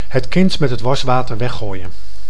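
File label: "het kind met het waswater weggooien", Dutch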